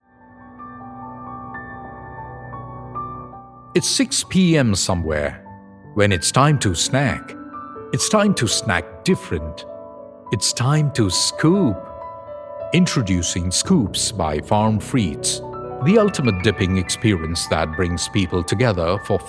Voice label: TVC ENGLISH